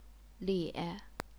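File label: "lie", Chinese, third tone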